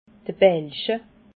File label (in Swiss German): "belcha", alsace